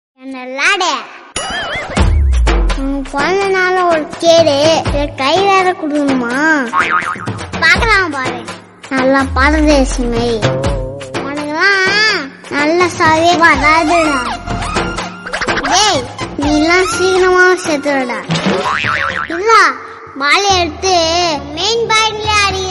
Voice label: Funny Birthday Wishes In Tamil